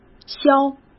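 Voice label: "削", Mandarin Chinese; hsk; chinese-flashcards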